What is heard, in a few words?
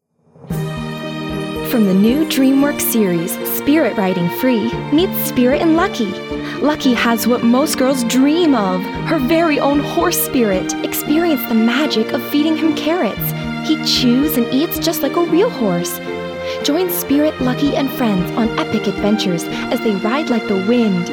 Kids Toy Commercial